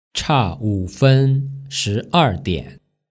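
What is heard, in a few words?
"chawufen", Mandarin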